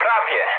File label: bomb failure mood low, speech pol; War Thunder